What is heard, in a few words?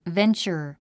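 "venture", English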